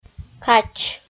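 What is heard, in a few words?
"кач", Komi, female; kpv audio dict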